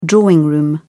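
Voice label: "drawing room", British English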